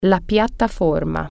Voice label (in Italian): "platform", Italian